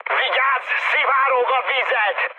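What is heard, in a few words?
Hungarian damaged water mood high, speech; War Thunder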